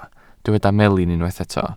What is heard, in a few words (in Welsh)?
"melin", Welsh